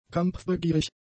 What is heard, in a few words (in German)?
"kampfbegierig", German; adjectives